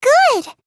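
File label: "good", English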